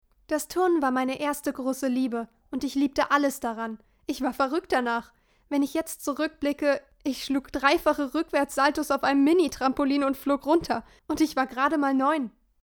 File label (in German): Dokumentation